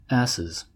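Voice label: "asses" English, US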